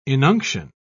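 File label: "inunction", English, uk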